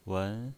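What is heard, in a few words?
"wen", pinyin voice, second tone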